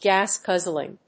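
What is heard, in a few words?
"gas-guzzling", English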